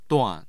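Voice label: "duan", Mandarin Chinese, tone 4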